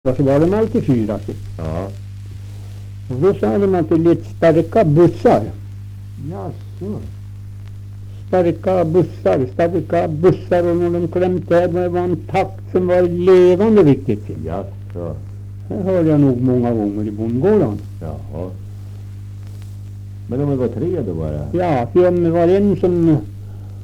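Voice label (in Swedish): Österfärnebo Gästrikland, dialekter, svenska sagor; Folkminnen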